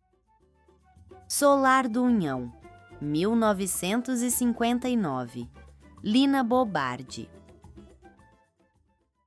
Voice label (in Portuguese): narracao; solar do unhao